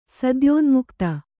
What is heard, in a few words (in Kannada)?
"ಸದ್ಯೋನ್ಮುಕ್ತೆ", kannada